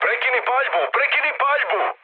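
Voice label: Serbian player friendly fire mood med; War Thunder; speech srb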